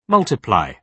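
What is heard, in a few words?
"multiply", British English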